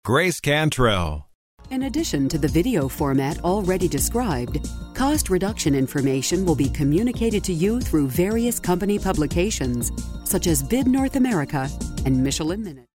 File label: CORPORATE